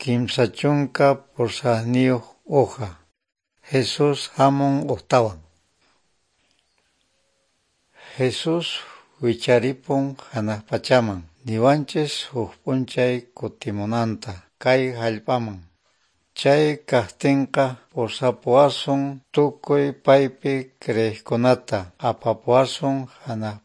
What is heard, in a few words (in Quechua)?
good news quechua norte de bolivia apolo